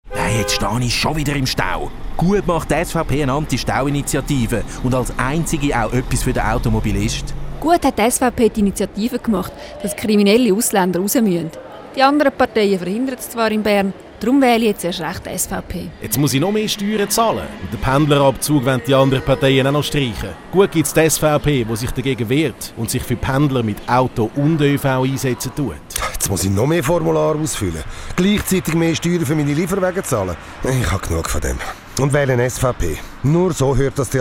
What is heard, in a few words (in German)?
Radiospot; SVP; Hüntwangen; SVP wählen